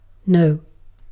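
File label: "noh", British English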